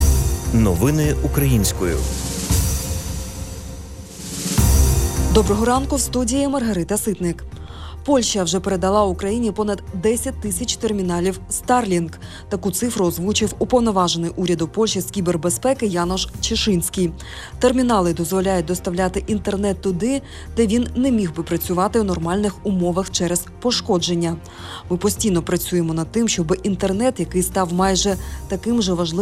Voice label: Ukrainian Morning News